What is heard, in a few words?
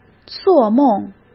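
"做梦", Mandarin; chinese flashcards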